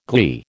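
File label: "glee", American English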